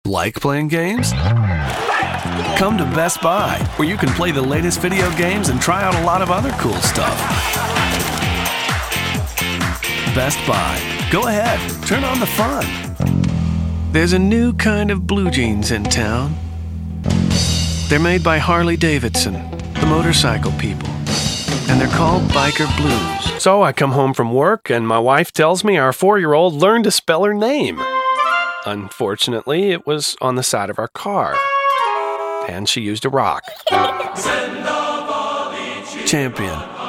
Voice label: Announcer; Commercial Announcer